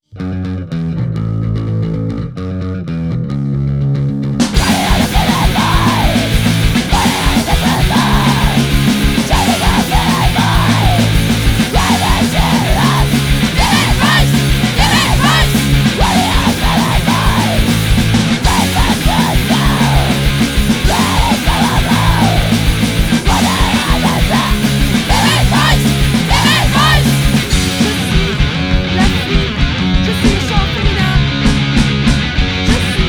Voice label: Female voice